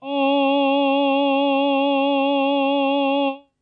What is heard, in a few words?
synvox C sharp 3, synthesized male voice